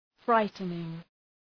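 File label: "frightening", English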